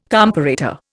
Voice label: "comparator", Indian